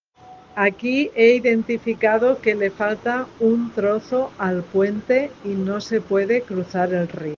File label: Voice message